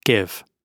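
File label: "give", British English; Oxford Audio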